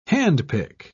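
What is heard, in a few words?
"handpick", British English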